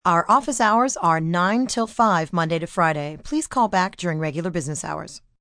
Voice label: Office Hours, Voicemail, telephone messages, female; VoiceBox